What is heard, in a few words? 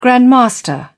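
"grandmaster", English, British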